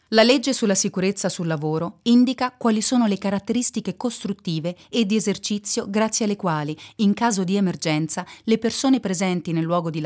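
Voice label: Italian Sicurezza E-Learning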